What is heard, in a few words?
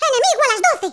"WATCH THIS", Spanish